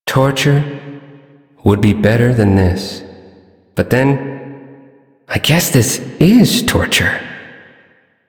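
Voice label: Male Sedentary; Warframe; Duviri; Duviri Ambient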